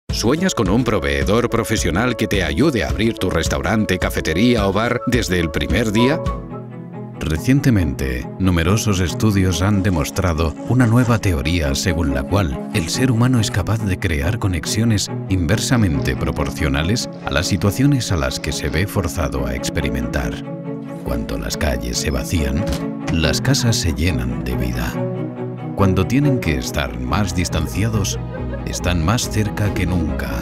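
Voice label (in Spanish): generico España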